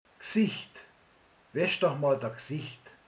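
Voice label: "arc", Hungarian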